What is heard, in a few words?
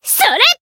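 attack, Japanese; altena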